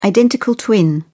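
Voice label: "identical twin", British English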